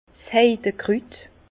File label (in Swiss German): "heide krut", Bas Rhin; vin; bruyère